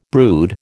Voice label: "brood", American